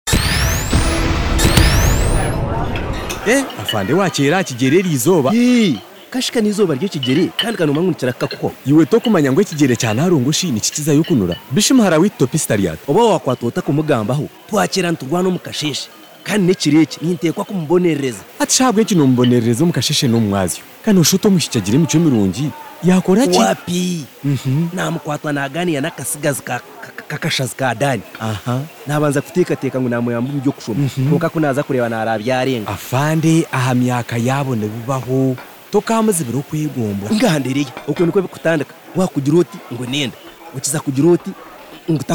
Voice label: Staying Cool, adolescent health campaign radio spots runyank; runyankore-rukiga; BOLD